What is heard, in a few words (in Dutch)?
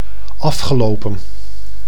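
"afgelopen", Dutch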